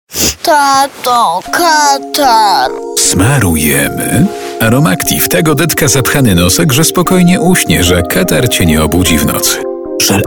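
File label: Polish, male, commercial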